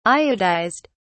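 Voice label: "iodized", British English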